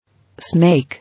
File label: "Snake", English